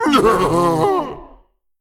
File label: Male Old God Creature Death